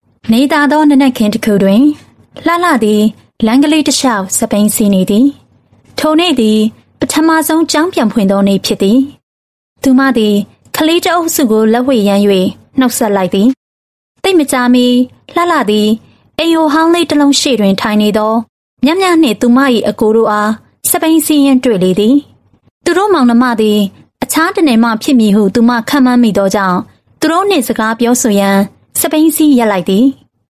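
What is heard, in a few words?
female Burmese Narration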